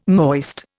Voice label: "moist", Indian